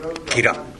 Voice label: "gera", minamiyamagata

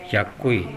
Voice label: "yakkoe", minamiyamagata; やわらかい。

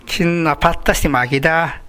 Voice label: "patta", minamiyamagata

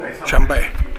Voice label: "yanbae", minamiyamagata

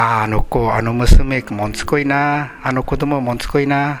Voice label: "montukoe", minamiyamagata

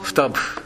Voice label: "futabu", minamiyamagata

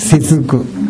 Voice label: "sezuku", minamiyamagata

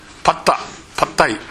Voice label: "patta", minamiyamagata

